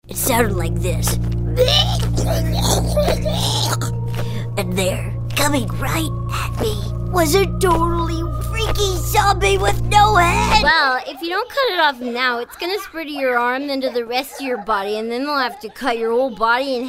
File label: animation